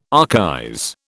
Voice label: "archaize", British

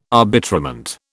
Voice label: "arbitrament", British

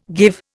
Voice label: "give", Indian

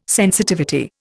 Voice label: "sensitivity", Indian

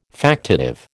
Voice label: "factitive", American

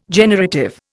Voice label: "generative", Indian

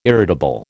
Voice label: "irritable", American

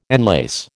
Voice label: "enlace", American

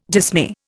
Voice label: "dismay", Indian

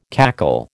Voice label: "cackle", American

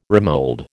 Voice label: "remould", American

